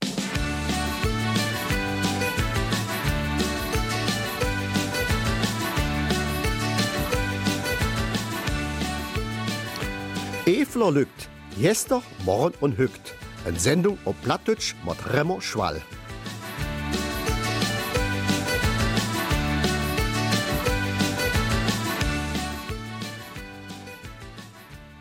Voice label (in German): Eifeler Mundart; Neuigkeiten aus Reuland und Umgebung